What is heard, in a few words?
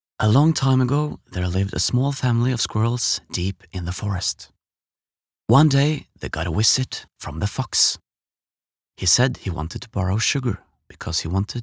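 no english, Norwegian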